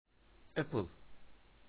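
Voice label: "apple", spoken word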